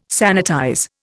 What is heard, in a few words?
"sanitize", Indian